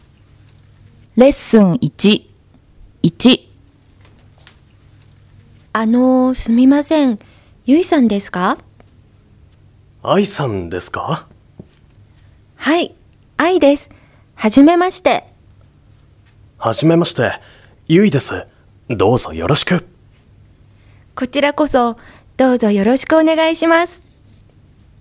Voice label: Conversation; tobira